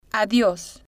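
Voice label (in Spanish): "adios", Spanish, female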